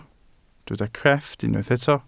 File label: "crefft", welsh